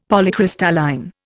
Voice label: "Polycrystalline", Indian; Dictionary